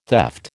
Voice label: "theft", American